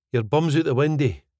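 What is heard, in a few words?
Yer bums oot the windae, Scottish English; 言ってることが理解できないんだけど。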